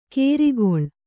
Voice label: "ಕೇರಿಗೊಳ್", Kannada